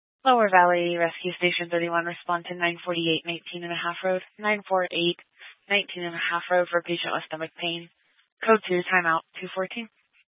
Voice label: Fire Department Dispatch; Grand Junction